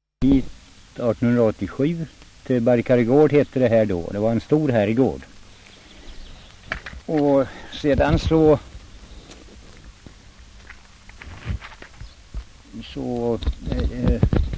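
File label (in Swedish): Västerås-Barkarö Västmanland, Svenska sagor, dialekter; Folkminnen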